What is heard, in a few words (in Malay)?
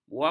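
"buapak", Malay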